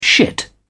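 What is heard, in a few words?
"shit", British English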